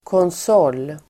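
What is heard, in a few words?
"konsol", Swedish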